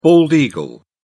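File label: "bald eagle", British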